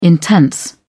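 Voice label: "intense", British English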